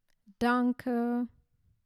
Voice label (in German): "Danke", German